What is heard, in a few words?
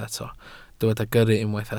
"gyrru", Welsh